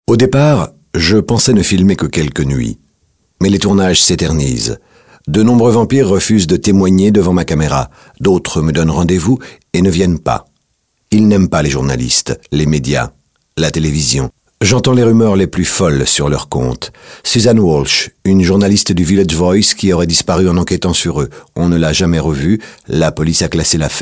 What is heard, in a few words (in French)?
DOCU; Vampires